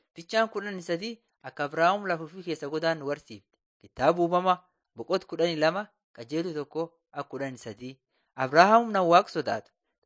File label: borana sakuye